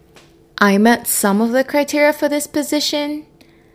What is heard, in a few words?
interviewee female